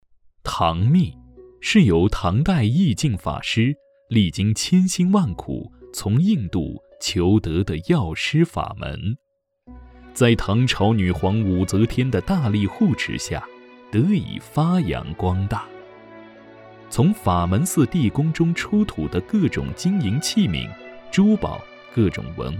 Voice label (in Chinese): 历史类 纪录片 电视专题片 BGM; 唐密